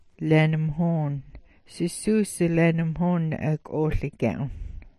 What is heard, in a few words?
"laanmhoon", smalgyax